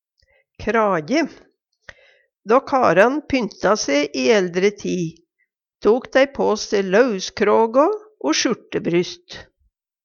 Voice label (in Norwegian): kraje, Numedalsmål